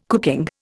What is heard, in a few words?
"cooking", Indian